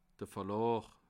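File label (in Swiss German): "verlaa", Alsatian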